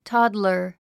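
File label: "toddler", English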